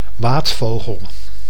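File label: "waadvogel", Dutch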